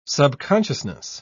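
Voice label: "subconsciousness", British English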